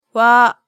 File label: "wa", hiragana